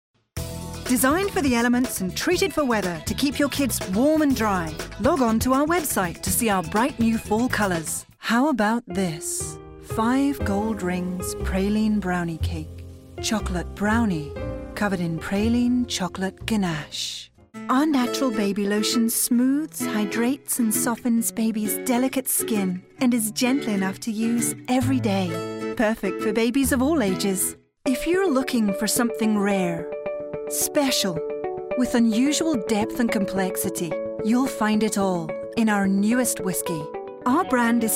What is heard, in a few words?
Commercial